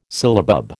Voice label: "syllabub", American

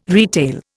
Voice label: "retail", Indian

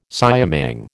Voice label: "siamang", American

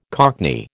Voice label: "cockney", American

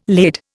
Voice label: "laid", Indian

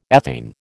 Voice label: "ethane", American

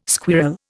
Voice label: "squirl", Indian

